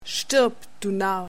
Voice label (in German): Narr